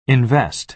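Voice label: "invest", American English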